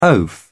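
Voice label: "oaf", English, British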